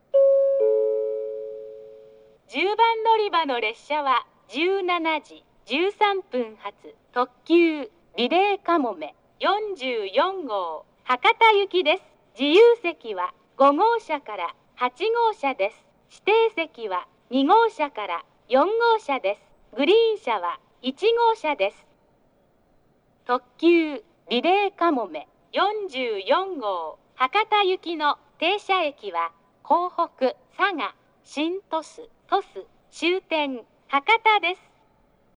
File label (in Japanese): 10番のりば停車中放送; リレーかもめ44号 博多行き; takeo onsen